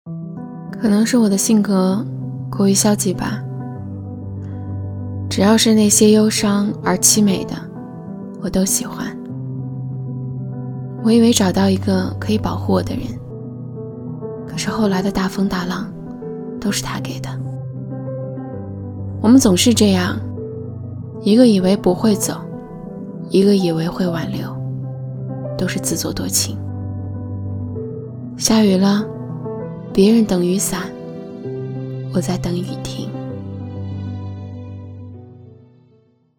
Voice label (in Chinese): 素人旁白 忧郁女声